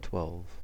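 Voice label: "twelve", US English; pronunciations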